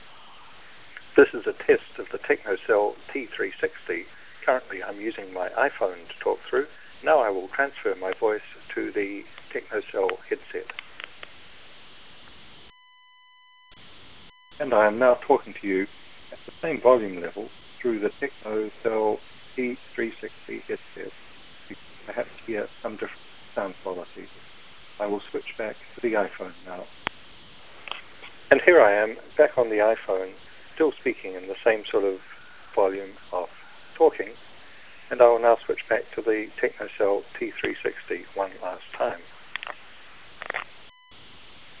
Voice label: t360 normal, bluetooth